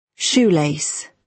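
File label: "shoelace", British English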